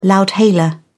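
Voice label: "loudhailer", British English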